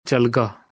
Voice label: "Chalga", Dogri; Will walk

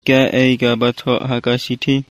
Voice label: Khasi

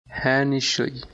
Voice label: Bengali, Bangladeshi Accent; Yes, of course.; Ha nischoy.

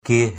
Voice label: "Ke", Haryanvi; What

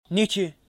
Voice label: "Neche", Lotha

